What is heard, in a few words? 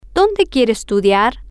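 Spanish, where does he want to study, female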